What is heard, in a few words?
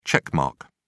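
"check mark", British English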